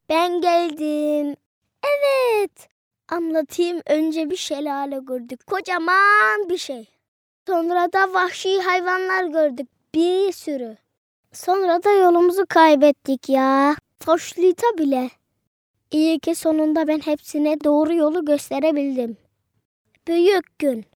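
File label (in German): Kind, stimmen; Milchschnitte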